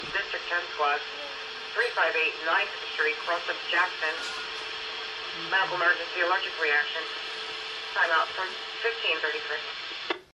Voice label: New jersey Scanner